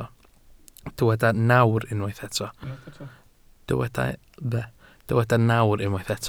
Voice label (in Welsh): "nawr", Welsh